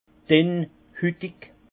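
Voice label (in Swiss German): "dennhitig", alsace Haut-Rhin; vin; peau mince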